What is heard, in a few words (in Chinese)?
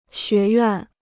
"学院", Chinese